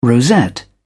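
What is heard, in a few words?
"rosette", British English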